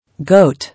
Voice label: "Goat", English